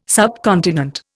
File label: "subcontinent", Indian